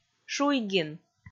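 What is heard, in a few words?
"шуйгин", Komi, female; kpv audio dict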